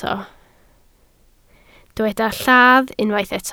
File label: "lladd", Welsh